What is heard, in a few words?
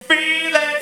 Male FX Vocals; Houseworx